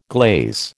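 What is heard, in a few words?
"glaze", American English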